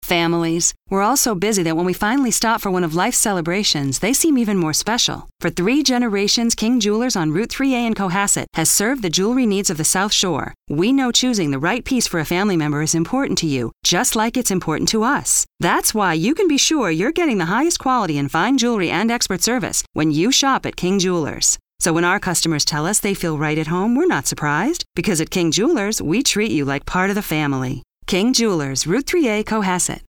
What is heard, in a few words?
ADV Spot